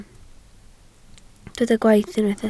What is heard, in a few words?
"gwaith", Welsh